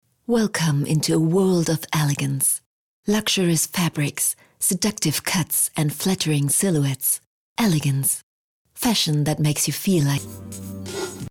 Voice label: uk englisch

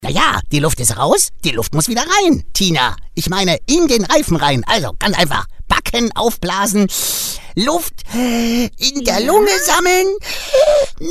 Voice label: Comedy

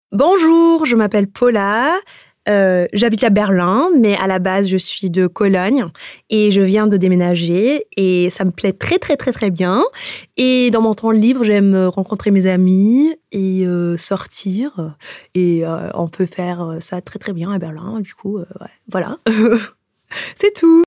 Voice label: Vorstellung FR; der Hörverlag / hr2 Kultur - Der geheimnisvolle Briefschreiber - Frühe Erzählungen, Anfang

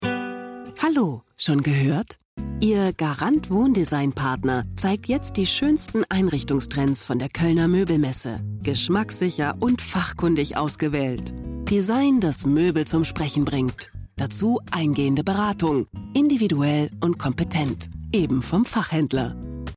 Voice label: werbung wohndesign

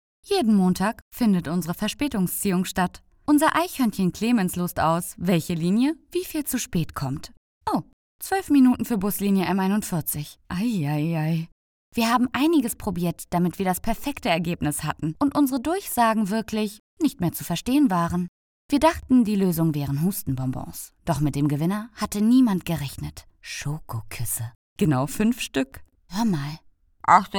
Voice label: Comedy DE; BVG

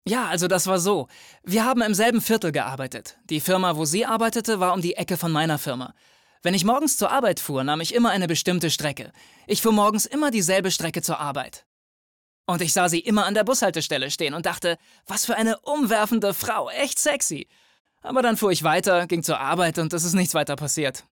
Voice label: synchron

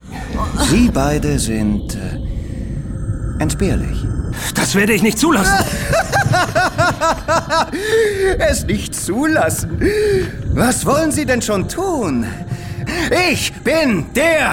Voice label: bedrohlich; LOFT VOICES

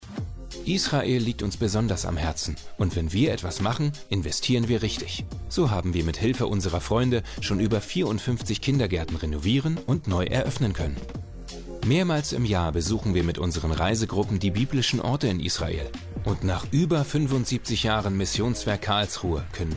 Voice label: Imagefilm

